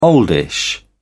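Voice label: "oldish", British English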